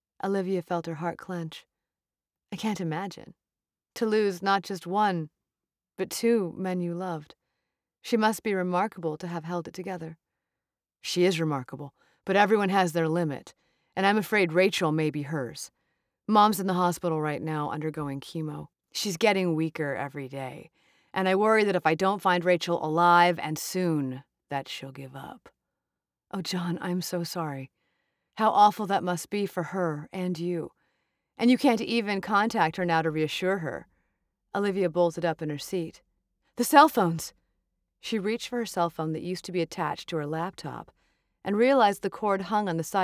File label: Romance 3rd POV M F Dialogue